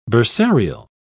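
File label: "bursarial", British English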